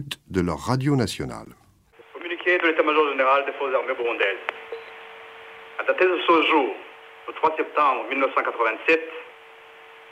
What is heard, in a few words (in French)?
Burundi communique militaire